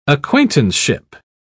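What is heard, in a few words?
"acquaintanceship", British English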